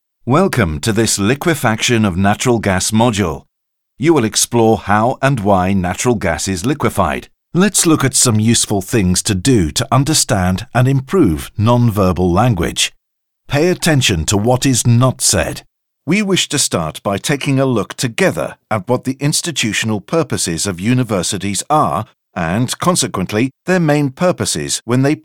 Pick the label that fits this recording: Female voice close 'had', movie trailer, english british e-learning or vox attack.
english british e-learning